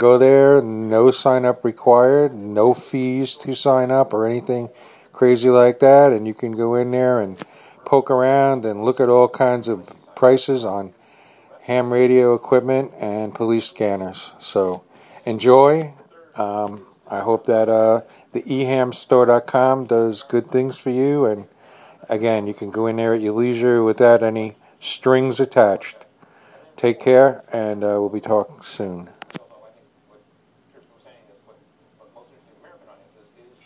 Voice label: a friendly hello; ehamstore